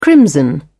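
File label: "crimson", British English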